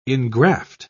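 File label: "ingraft", British English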